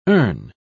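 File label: "ern", British English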